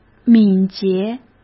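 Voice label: "敏捷", Mandarin Chinese; chinese-flashcards; hsk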